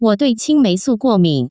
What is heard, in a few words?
guomin, Taiwan Chinese; pharmacy; yaoju; zhengzhuang